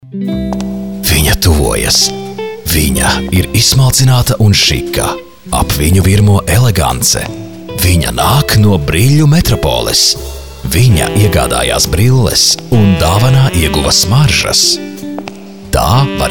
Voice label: latvian male

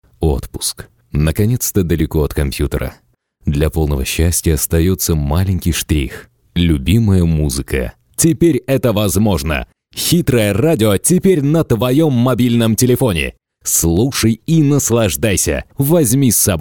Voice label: russian male

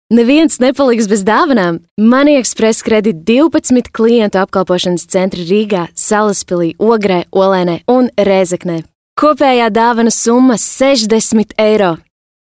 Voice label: latvian female

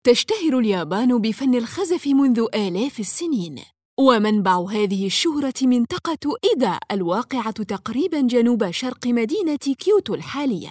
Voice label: arabic female, soundwaves

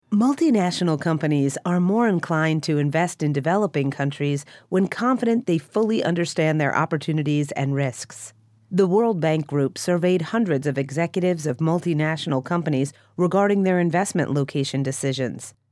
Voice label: english female

